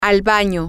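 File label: female, spanish; to The Bathroom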